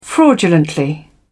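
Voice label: "fraudulently", British English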